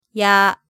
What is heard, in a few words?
"ya", hiragana